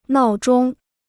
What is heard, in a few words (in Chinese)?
"闹钟", Chinese